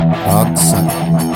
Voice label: Businessman dialogue

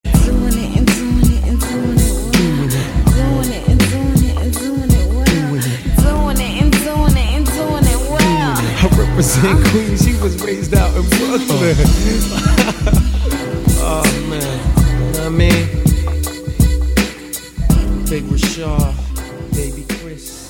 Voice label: So Cute Voice

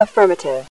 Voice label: Computer Voice

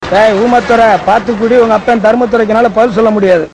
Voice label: Tamil Comedy

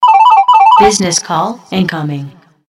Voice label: Female Voice

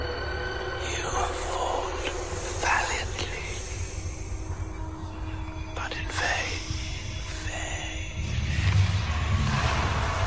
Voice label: Voldemort Whisper

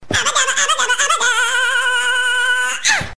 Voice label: Aba Daba Pitched

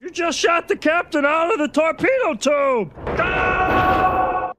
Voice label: Underwater Doh; Homer

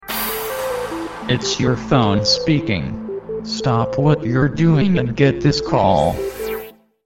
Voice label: Phone Speaking

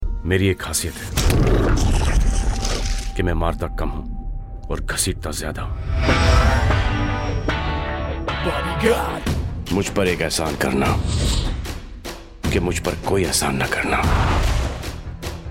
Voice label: dialog

